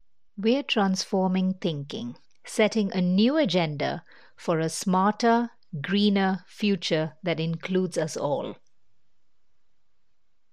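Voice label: Malayalam, accented English; GoLocalise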